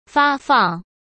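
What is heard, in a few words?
"发放", Mandarin; chinese-flashcards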